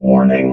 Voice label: "warning", robot

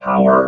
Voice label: "power", robot

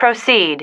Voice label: "proceed", female

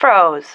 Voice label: "froze", female

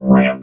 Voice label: "ramp", robot

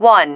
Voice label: "won", female

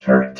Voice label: "turret", robot

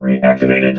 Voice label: "reactivated", robot

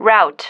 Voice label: "route", female

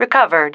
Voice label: "recovered", female

